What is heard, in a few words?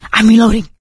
reloading quiet, survivor voice; source engine; left 4 dead 2; producer